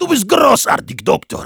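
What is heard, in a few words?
Heavy thanks for the heal, German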